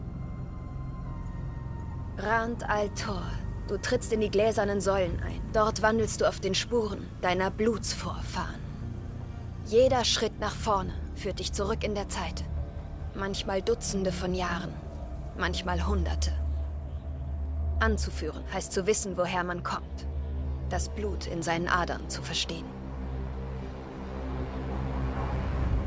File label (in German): WHEEL OF TIME (Auszug)- episch